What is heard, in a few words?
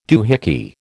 "doohickey", American